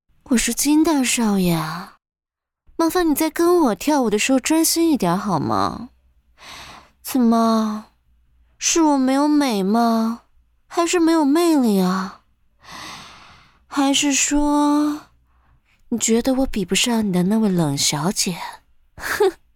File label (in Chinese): 御姐柔